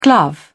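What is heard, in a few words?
"glove", English, British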